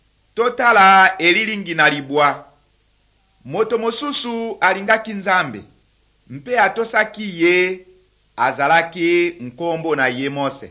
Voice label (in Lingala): good news lingala west, drama; bible; rdc